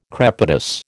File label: "crepitus", American